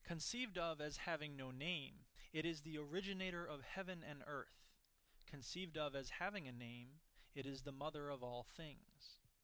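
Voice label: male, instantaneous; SiSEC11; underdetermined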